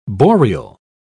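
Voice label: "boreal", British English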